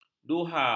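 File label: "duha", Malay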